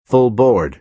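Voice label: "full board", American English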